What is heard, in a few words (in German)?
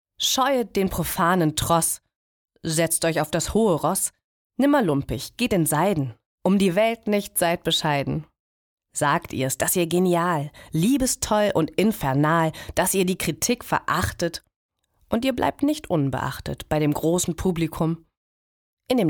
Sprachdemo Gedichte; Lahr; Audition